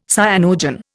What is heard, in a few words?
"cyanogen", Indian